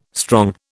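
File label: "strong", British English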